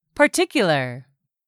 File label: "particular", English; 3000 words